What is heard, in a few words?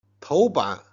"jian", Chinese